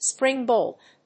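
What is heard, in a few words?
"spring bolt", English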